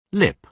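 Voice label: "lip", British English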